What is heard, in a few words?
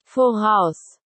Go Forward dog command in german